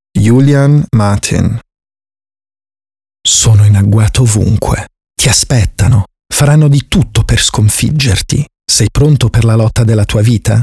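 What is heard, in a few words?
italian spot cool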